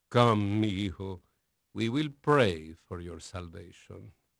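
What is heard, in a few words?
Spanish male, voice; Pirates Of The Caribbean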